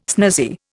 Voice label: "snazzy", Indian